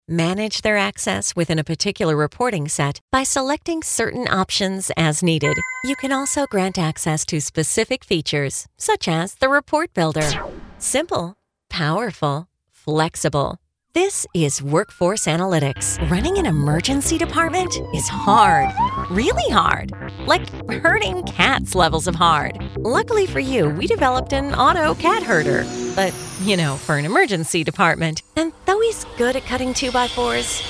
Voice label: Explainer, Narration, Whiteboard/Explainer; Commercial Voiceover, TV and Radio